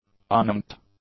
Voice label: "uninked", English; adjectives

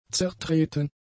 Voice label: "zertreten", German; adjectives

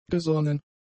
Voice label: "gesonnen", German; adjectives

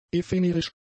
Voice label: "ephemerisch", German; adjectives

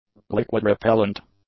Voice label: "liquid-repellent", english; adjectives

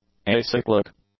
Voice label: "acyclic", English; adjectives

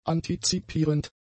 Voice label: "antizipierend", German; adjectives

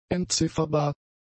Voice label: "entzifferbar", German; adjectives

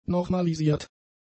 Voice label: "normalisiert", German; adjectives

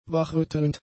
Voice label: "wachrüttelnd", German; adjectives

